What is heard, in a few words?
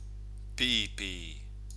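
"pipi", Māori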